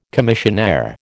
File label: "commissionaire", American